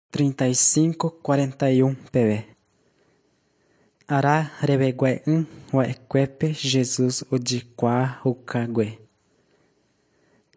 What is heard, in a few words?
john guarani mbya, bible